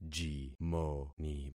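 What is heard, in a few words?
"jimoni", concatenated syllables; Affixing experiment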